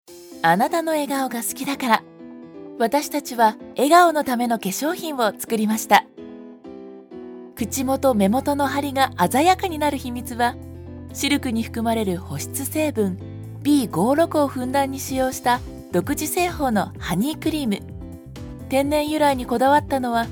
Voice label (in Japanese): CM-明るい・艶やか